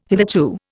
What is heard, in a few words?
"hitherto", Indian